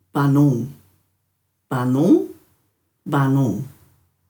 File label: "banhung", Dhurga